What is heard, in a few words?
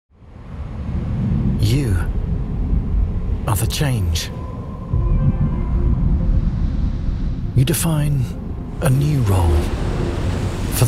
Narration